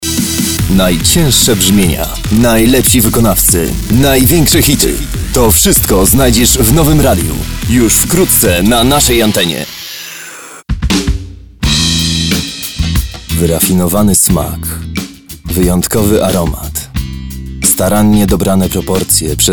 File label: Male VO Commercial